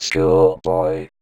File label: Vocoder Words; Vengeance Samples